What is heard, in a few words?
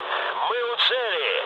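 near waypoint, mood med, Russian; War Thunder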